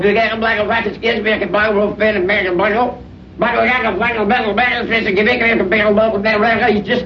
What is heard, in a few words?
gibbrish, voice; giblacca blacca blacca skinhead bocco blacco